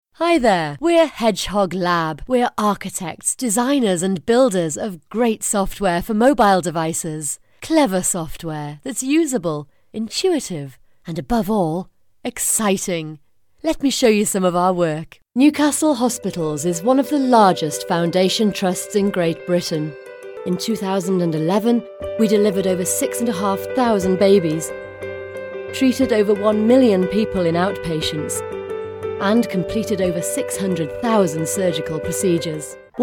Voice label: explainers website video promos